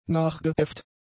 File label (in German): "nachgeäfft", German; adjectives